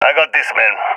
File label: i killed enemy, balkan; balkan epic